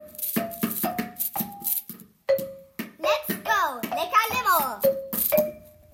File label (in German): Radio Werbung